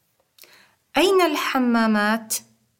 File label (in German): wo toiletten, Arabisch; Interkultur; LearningApp